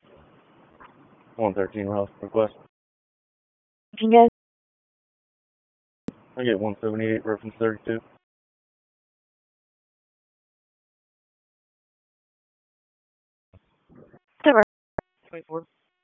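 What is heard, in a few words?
Police District Dispatch, p25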